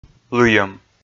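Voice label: "лыйӧм", Komi, male; kpv audio dict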